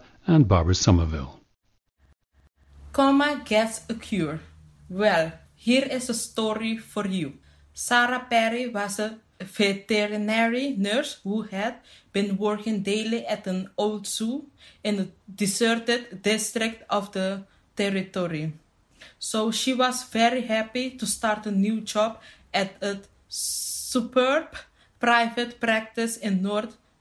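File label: curacao, dialects of English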